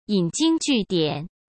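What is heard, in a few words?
"引经据典", Mandarin Chinese; hsk; chinese-flashcards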